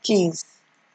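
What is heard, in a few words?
"kiss", Nigerian Pidgin, female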